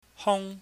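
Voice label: "hung", Cantonese, high falling tone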